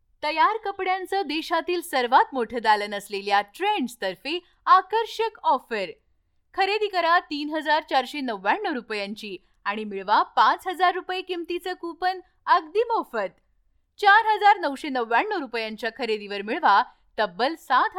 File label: Marathi